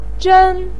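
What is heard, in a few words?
"zhen1", Mandarin Chinese pinyin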